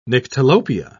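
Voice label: "nyctalopia", English, British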